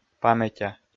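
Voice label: "паметя", Komi, male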